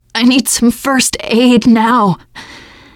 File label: going to die ask for heal, teen girl; player; survivor; voice